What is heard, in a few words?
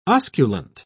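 "osculant", British English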